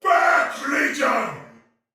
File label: Attack Heavy Soldier Male, Battle; Sinecure